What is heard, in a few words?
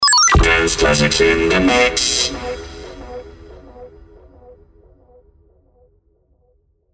RoboVoice, Danceclassics in the mix, singing Robot